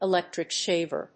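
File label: "ELECTRIC SHAVER", English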